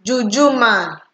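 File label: "jujuman", Nigerian Pidgin, female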